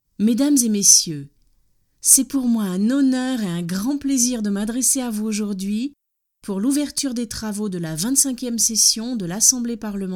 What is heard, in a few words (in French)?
Discours de bienvenue